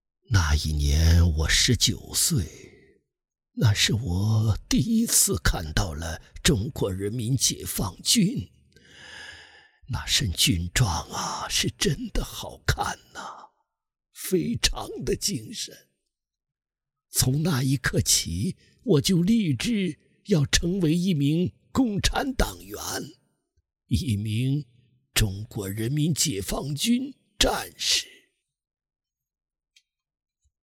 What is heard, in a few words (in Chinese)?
男 角色 老人音 老红军